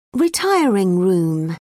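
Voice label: "retiring room", British English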